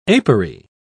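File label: "apery", British English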